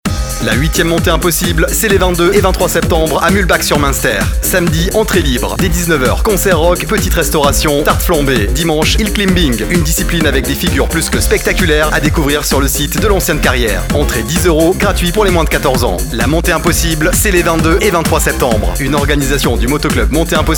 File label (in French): VOIX HOM JEUNE DYNAMIQUE ROCK